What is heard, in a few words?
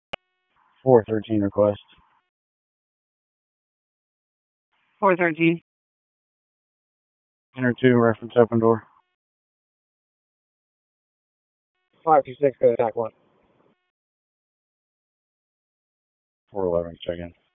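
Police Dispatch, p25; Raleigh; Southeast District; wake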